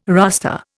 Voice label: "rustre", Indian